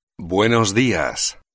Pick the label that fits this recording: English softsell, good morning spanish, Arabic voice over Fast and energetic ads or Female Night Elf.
good morning spanish